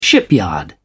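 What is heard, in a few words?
"shipyard", British English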